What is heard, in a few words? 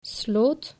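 "sloot", Dutch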